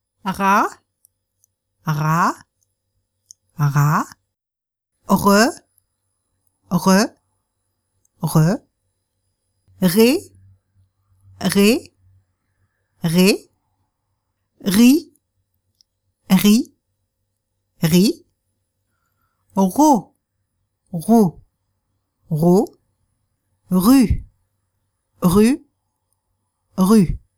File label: french r exercise